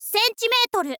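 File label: "centimeter", Japanese, girl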